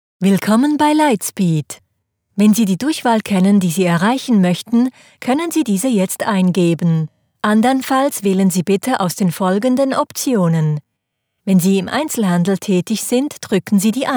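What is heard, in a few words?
german interactive voice response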